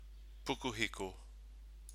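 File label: "pukuhiko", Māori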